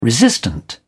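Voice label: "resistant", British English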